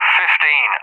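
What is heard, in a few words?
"15", English, UK; Numbers; DCS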